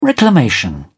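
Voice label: "reclamation", British English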